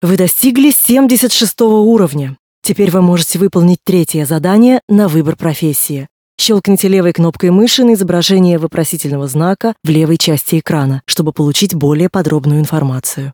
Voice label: tutorial voice ru; lineage2